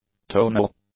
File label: "tonal", English; adjectives